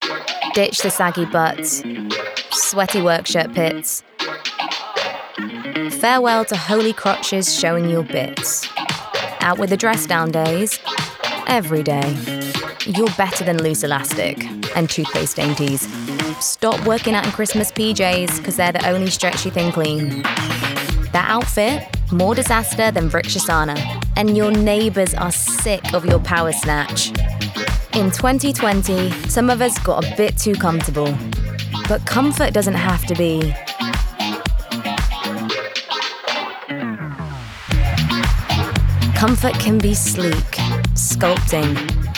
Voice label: Commercial; LNDR